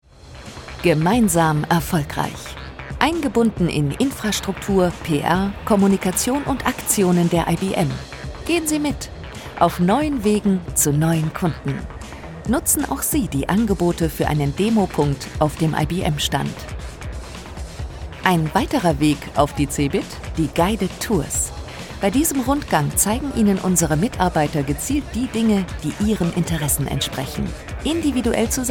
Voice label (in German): image dynamisch; ibm